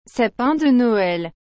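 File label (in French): "sapin de Noël", French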